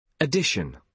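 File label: "addition", British English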